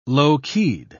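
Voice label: "low-keyed", British English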